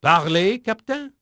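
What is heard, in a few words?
French male, voice; Pirates Of The Caribbean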